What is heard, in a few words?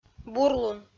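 "бурлун", Komi-Zyrian, female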